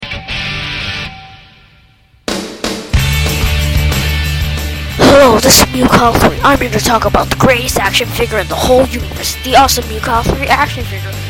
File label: radio commercial